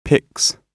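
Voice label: "picks", British English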